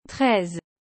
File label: "treize", French